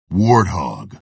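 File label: "warthog", male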